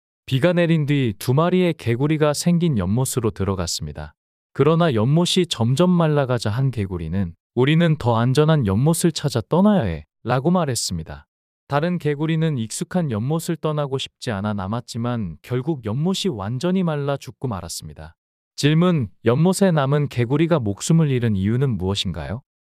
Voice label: 이야기 남자; 두 마리 개구리

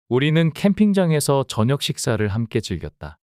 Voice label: 문장 남자; 우리는 캠핑장에서 저녁 식사를 함께 즐겼다

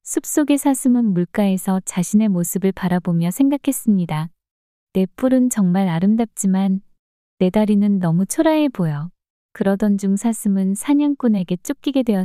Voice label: 이야기 여자; 사슴과 뿔 다리

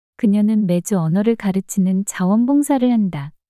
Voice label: 그녀는 매주 언어를 가르치는 자원봉사를 한다 문장 여자

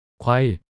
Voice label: "과일" 단어 남자

